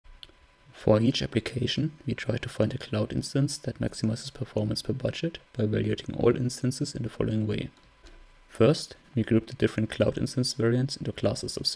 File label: Voiceover; ClusterComp 2020